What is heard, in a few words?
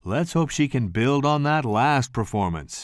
commentator